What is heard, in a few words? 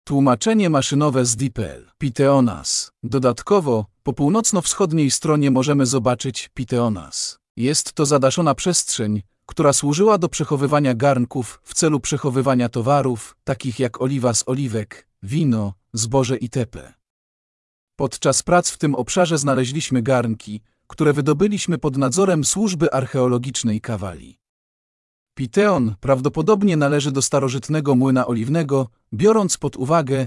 polish, Wycieczka z przewodnikiem audio; Πιθεώνας; Pitheonas